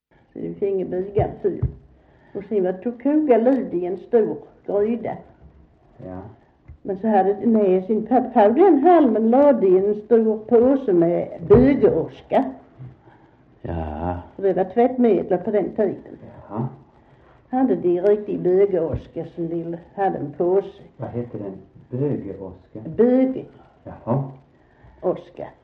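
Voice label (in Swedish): Västra Torup Skåne, dialekter; Folkminnen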